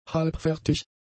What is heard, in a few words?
"halbfertig", German; adjectives